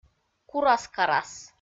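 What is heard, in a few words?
"курас-карас", Komi, female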